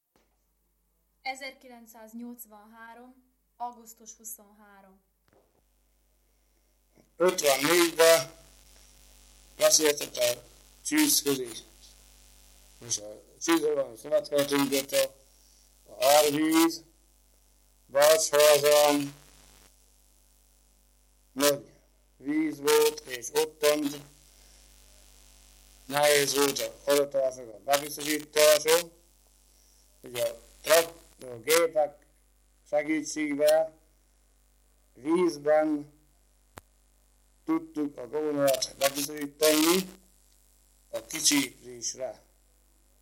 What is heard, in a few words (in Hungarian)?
nyelvjaras gyujtesek